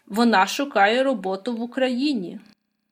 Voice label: dialogue, Ukrainian